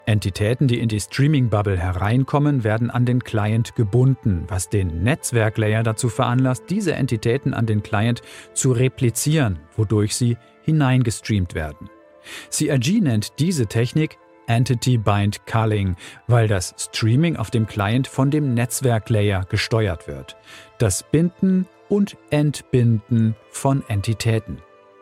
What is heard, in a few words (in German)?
beispiel doku